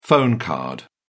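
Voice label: "phone card", British English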